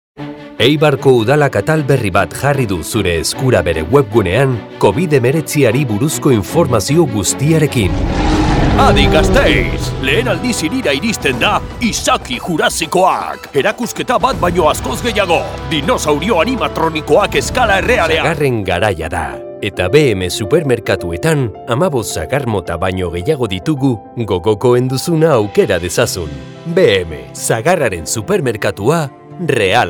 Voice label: basque commercial; professional voice actor from Spain